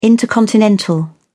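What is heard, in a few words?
"intercontinental", English, British English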